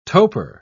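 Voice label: "toper", British English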